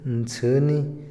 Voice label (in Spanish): "flecha", Otomí, hombre; INALI